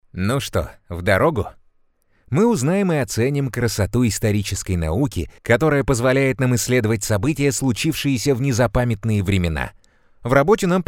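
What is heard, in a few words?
detskaya videoigra, children's video game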